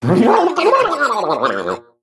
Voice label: Male Mech Attack